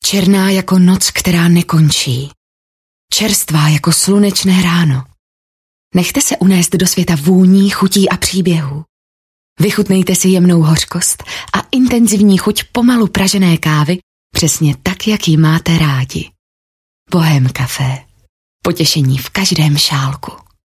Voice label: Czech Voice Sample